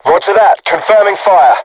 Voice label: voice message art shoot, vietnamese

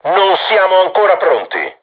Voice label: art reloading, crew tanks italian; War Thunder

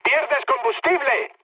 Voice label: tank damaged, mood med, speech; War Thunder

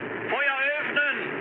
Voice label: attack position, mood high, speech ge; War Thunder

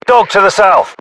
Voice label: UK threat dog south, battlechatter

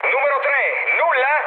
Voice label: chat, speech it; War Thunder

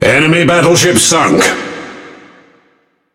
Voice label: Speech Destroy Enemy Battleship, EN GB; World Of Warships

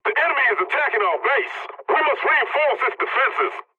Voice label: pilot base under attack, english; War Thunder

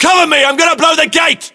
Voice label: voiceovers, US; english

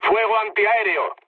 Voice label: damaged aa, mood low, speech; War Thunder

